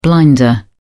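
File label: "blinder", British English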